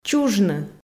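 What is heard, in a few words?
"чужны" Komi, female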